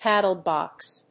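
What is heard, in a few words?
"paddle-box", English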